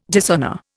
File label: "dishonour", Indian